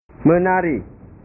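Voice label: "menari", Indonesian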